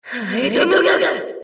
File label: Enemy Voice Damaged Under Attack